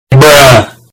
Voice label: loud bruhh